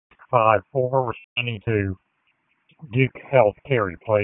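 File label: Public Safety Tactical, p25; wake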